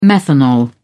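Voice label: "methanol", English, British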